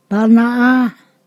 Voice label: "this long", Ponosakan